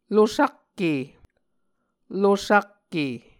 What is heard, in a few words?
"losakke", Muscogee; Mvskoke Words with Repeated Consonants; A Dictionary of Creek/Muskogee; SIL International; Onvkv Ohhvyayices